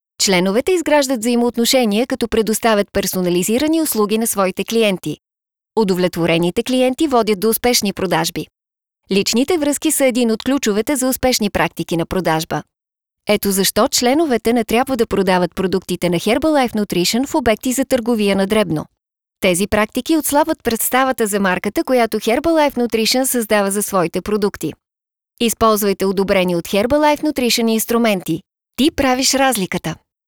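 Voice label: Bulgarian, female, corporate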